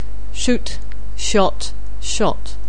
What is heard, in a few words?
"shoot", anglais